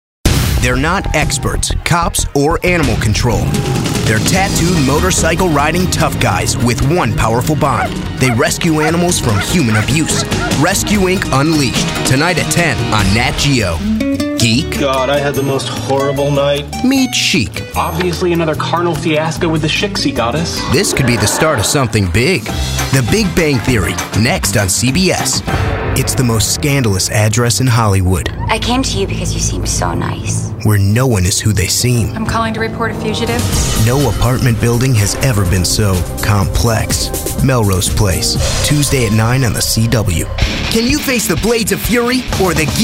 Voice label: Male, promos